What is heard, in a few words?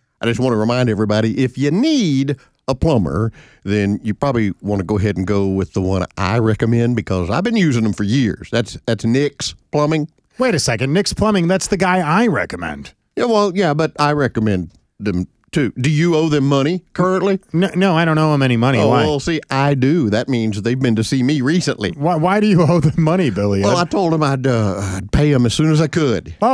RADIO AD; nicks plumbing radio ads